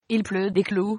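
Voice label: rain, French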